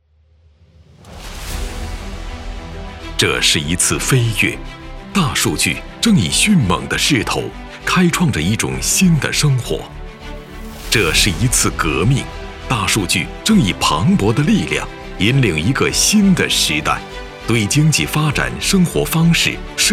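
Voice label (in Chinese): 地域宣传片数智锡林郭勒大数据人工智能, 男配音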